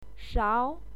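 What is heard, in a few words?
"shao2", Mandarin Chinese pinyin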